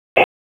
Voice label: PS TAC, p25

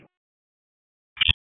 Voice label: Police North District Tac 1, p25; Raleigh; wake

